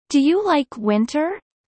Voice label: low